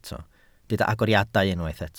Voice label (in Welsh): "agoriadau", Welsh